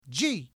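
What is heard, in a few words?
"G", English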